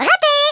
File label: "missed", French